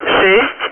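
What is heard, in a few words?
Speech, Russian, air traffic control; Digits; DCS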